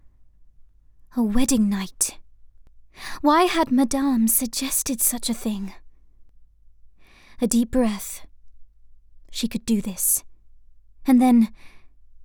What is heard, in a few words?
Erotic Sample